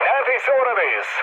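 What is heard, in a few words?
Turkish near waypoint, mood med, speech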